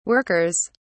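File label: "workers", British English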